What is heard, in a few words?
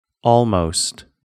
"almost", English